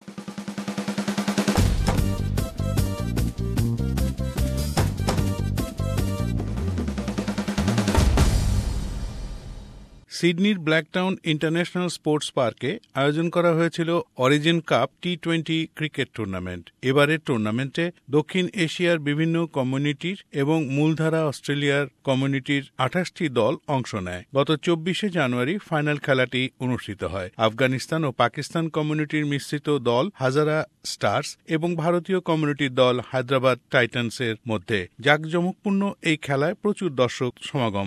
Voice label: bangla; Origin Grand Cricket Australia; SBS Bangla

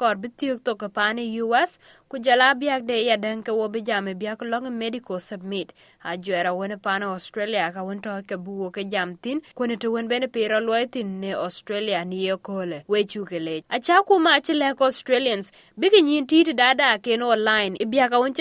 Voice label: SBS Dinka News Flash, Dinka

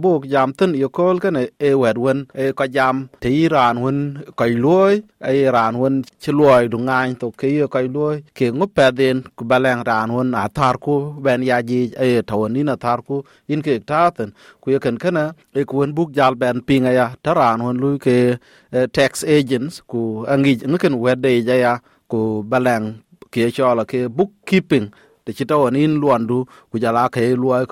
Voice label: dinka, podcast; Athoor ku tɔ̈ɔ̈u käkun ci yïn ya ɣɔ̈ɔ̈c; SBS Dinka

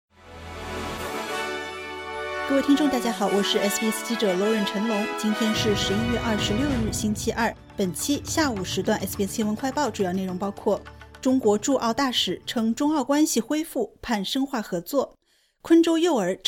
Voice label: mandarin news flash; SBS新闻快报; 肖千称中澳关系恢复 盼深化合作; SBS Chinese; 2024年11月26日下午：中国驻澳大使肖千宣称，中澳关系已恢复常态

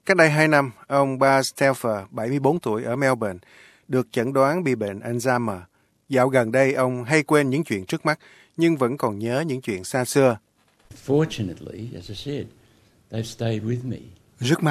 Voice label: vietnamese; SBS Vietnamese; Phát hiện não người bệnh Alzheimer có lượng chất sắt cao

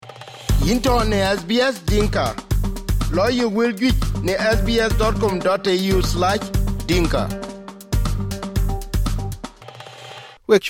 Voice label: dinka; SBS Dinka

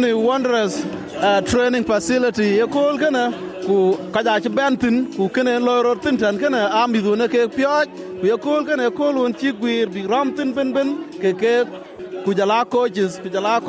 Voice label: dinka, podcast; SBS Dinka

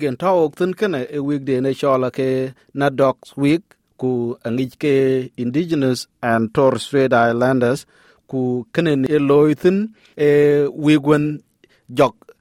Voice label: Dinka; Anyiköl NAIDOC Wiik; Wik NAIDOC ku kaŋ rot looi thin tɛn kɔc wut Aboriginal ku Torres Strait Islander.; SBS Dinka

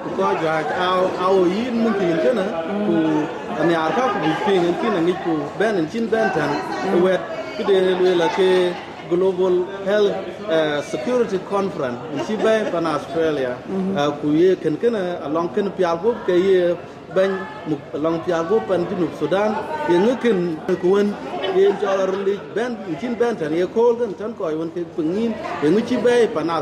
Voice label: dinka, podcast; Ɣët bɛ̈ny Wëi pɛn South Sudan; Ɣët Bɛny Wëi South Sudan pɛn Australia, Hon, Yolanda Awel Deng Juach wɛ̈t mɛ̈tmɛ̈t nhom Bɛ̈ɛ̈i Gëlgël käk Wëi ku wëu.; SBS Dinka

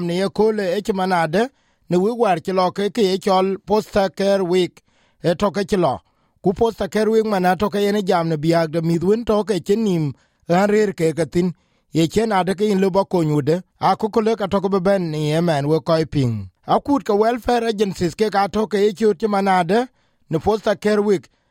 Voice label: dinka; Up to 5000 new foster carers needed to meet the growing demand; Anglicare; SBS Dinka

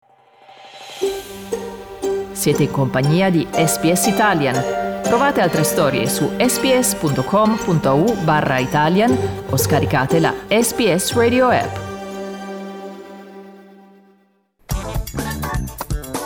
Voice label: italian; SBS Italian; Scoperta in Indonesia la più antica pittura rupestre di animali

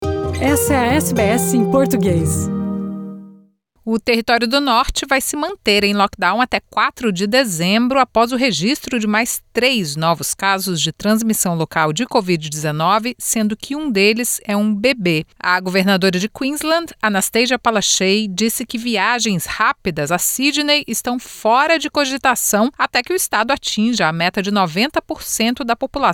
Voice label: portuguese; SBS Portuguese; COVID-19 na Austrália hoje: Território do Norte estende lockdown por causa do surgimento de novos casos

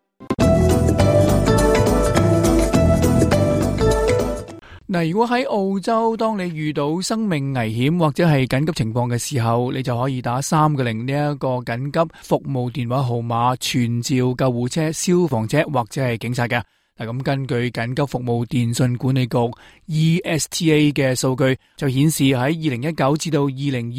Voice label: cantonese; 撥打「000」前要三思!; ao zhou ding ju zhi nan; SBS Chinese

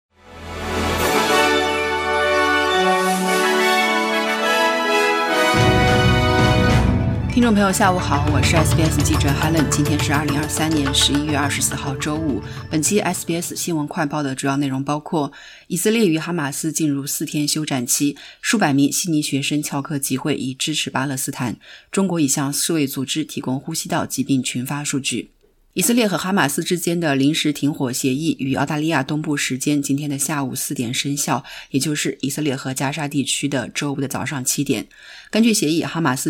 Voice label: mandarin newsflash; 以色列与哈马斯暂时休战 悉尼数百学生集会支持巴勒斯坦; SBS Chinese; SBS新闻快报

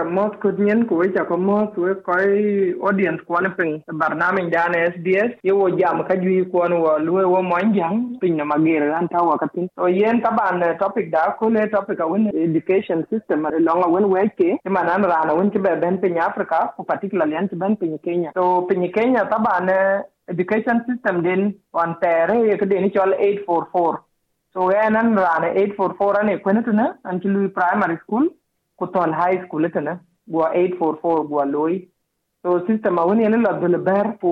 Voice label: dinka, podcast; Dhɛ̈ɛ̈l yam latuëŋ piööc yic Pɛ̈n Kenya; SBS Dinka

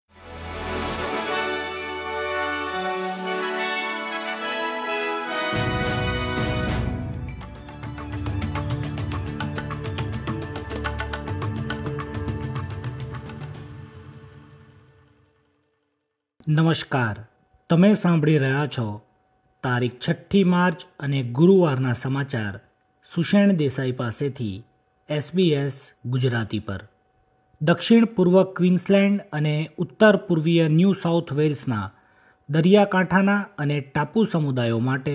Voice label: gujarati newsflash; SBS Gujarati Australian update: 6 March 2025

